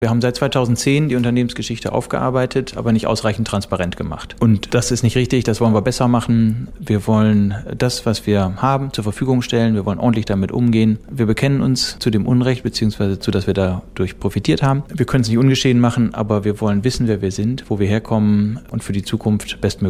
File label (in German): O-Ton Rauschen